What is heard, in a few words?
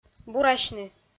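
"бурасьны", Komi-Zyrian, female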